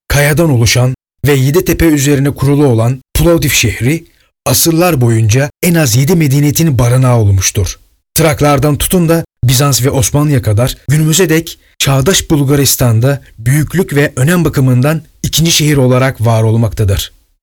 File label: turkish narration